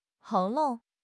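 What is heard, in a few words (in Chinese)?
"喉咙", Mandarin Chinese; HSK6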